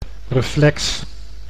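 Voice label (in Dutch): "reflex", Dutch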